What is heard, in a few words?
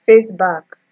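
"face back", Nigerian Pidgin, female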